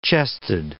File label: "chested", English